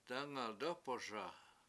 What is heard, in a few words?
"dAngal dOpoSa" house burning, Washo